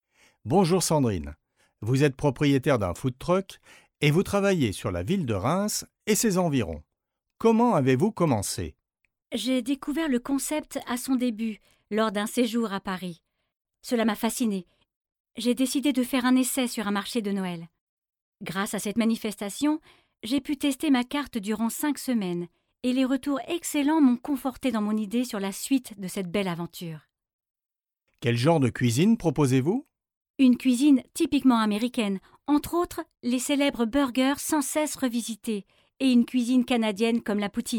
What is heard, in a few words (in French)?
ELearning; Babel mix